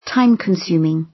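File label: "time-consuming", British English; Time, history; Thời gian, lịch sử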